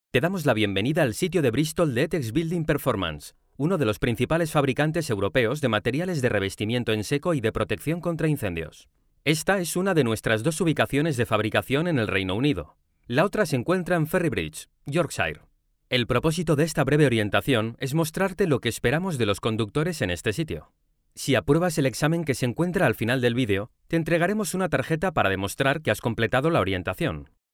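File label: Spanish Spain, male, voice over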